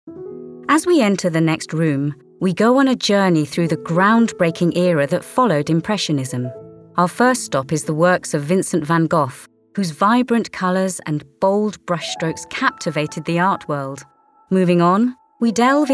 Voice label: Narration MUSEUM